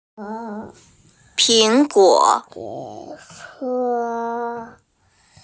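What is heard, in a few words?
"ping2guo3", Mandarin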